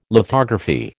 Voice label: "lithography", American

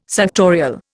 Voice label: "suctorial", Indian

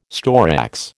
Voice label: "storax", American

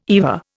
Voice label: "EVA", Indian

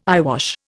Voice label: "eyewash", Indian

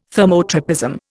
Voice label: "thermotropism", Indian

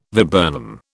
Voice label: "viburnum", British

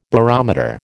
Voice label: "barometer", American

